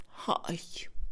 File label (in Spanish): "persona", Mixe, Mujer; Parentesco; INALI